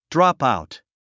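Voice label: "dropout", English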